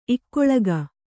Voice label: "ಇಕ್ಕುಳಿಕೆ", Kannada

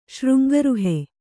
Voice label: "ಶೃಂಗರುಹೆ", Kannada; śřngaruhe; noun

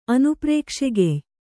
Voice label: "ಅನುಪ್ರೇಕ್ಷೆಗೆಯ್", Kannada

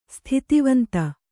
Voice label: "ಸ್ಥಿತಿವಂತೆ", Kannada

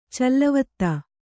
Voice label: "ಚಲ್ಲವತ್ತ", Kannada; callavatta; noun; a wheedler.

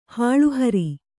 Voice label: "ಹುಲ್ಲುಹೊರೆ", Kannada

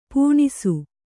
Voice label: "ಪುಣ್ಸೆ", Kannada

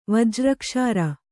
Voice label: "ವಜ್ರಕ್ಷಾರ", Kannada

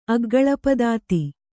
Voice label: "ಅಗ್ಗಳಪದಾತಿ", Kannada

